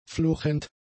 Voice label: "fluchend", German; adjectives